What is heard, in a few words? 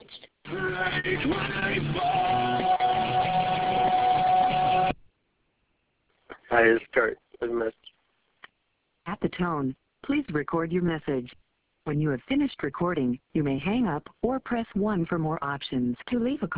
Voicemail Greeting